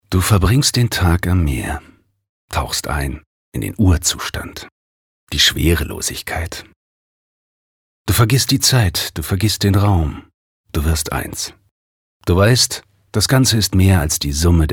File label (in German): Schauspiel, Neutral